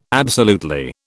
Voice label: "absolutely", British

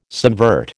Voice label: "subvert", American

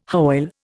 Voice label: "Hoyle", Indian

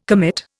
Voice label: "commit", Indian

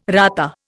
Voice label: "rata", Indian

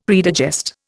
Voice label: "predigest", Indian; Dictionary

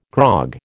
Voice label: "grog", American

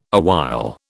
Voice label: "awhile", British

